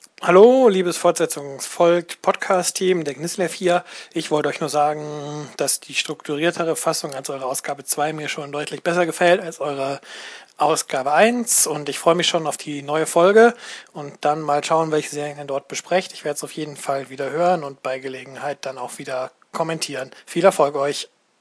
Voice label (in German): Kommentar